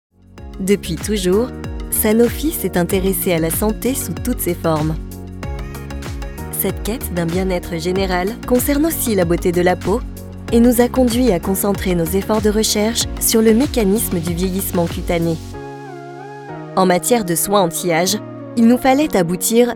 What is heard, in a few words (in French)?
Voix souriante posee confiante, voix off institutionnel corporate; Sanofi